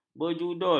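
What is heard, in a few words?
"berjudul", Malay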